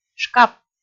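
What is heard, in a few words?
"шкап", Komi, female; правильно; kpv audio dict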